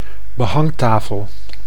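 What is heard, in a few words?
"behangtafel", Dutch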